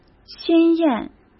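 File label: "鲜艳", Mandarin Chinese; hsk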